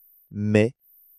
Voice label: "mes", French

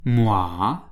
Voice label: "moi", French

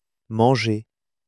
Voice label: "mangez", French

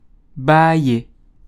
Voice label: "bayer", learn French

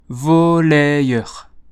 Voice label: "volleyeur", French

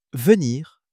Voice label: "venir", French

